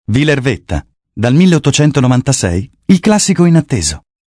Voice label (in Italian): solo voce